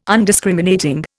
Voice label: "Undiscriminating", Indian